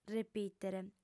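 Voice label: "repìtere", female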